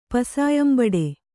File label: "ಪಸಾಯಂಬಡೆ", kannada